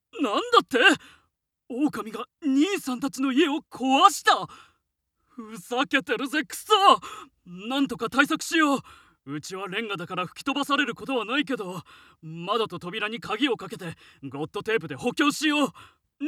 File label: male